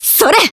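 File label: Voice attack, Japanese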